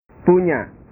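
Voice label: "punya", Indonesian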